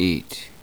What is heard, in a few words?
"eat", us